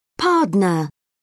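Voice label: "pardner", British English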